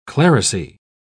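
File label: "clerisy", British English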